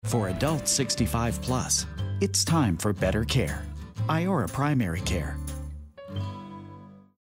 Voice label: warm

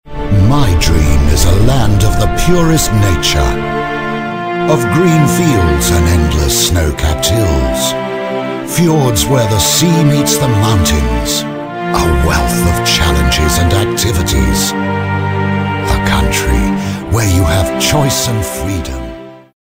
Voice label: elegant

